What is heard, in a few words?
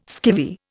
"skivvy", Indian